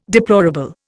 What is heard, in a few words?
"deplorable", Indian